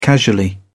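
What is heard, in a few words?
"casually", British English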